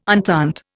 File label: "entente", Indian